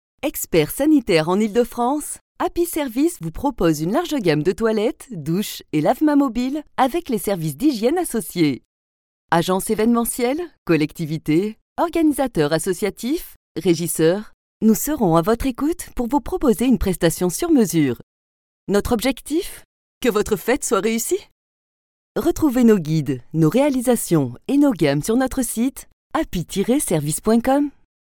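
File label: French, female, Happy Services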